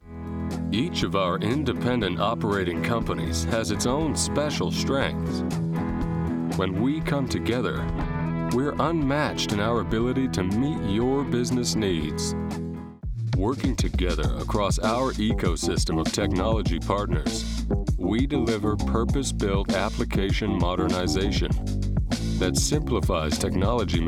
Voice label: Corporate Video